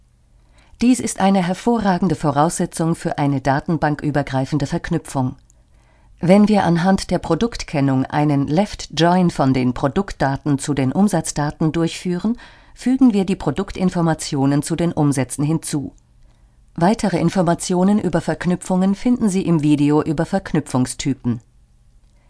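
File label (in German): Sachtext, Sprecherin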